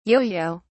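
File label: "yoyo", British English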